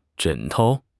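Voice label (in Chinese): "枕头", Chinese; HSK6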